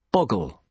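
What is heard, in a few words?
"boggle", British English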